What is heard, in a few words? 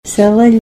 entire, Ninilchik Russian